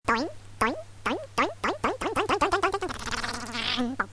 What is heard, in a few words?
doing, voice sound effects